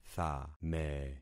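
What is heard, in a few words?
"thame", concatenated syllables; Affixing experiment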